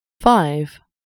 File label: "five", inglese; numeri in inglese